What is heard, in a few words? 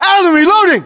US inform reloading, battlechatter, english